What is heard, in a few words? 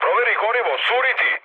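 Serbian tank damaged mood med; War Thunder; speech srb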